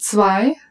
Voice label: German - 2